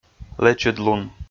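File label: "лэчыдлун" Komi, male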